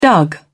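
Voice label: "dug", British English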